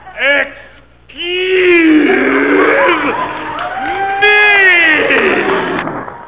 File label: excuuuseme